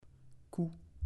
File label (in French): "coup", French